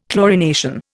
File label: "chlorination", Indian